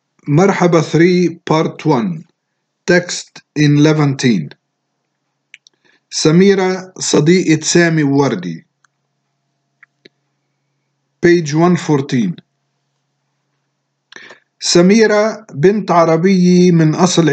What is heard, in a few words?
levantine